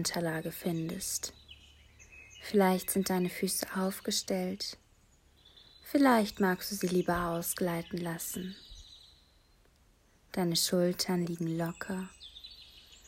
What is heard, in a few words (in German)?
Bodyscan Vogelgezwitscher